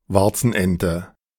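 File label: "Warzenente", German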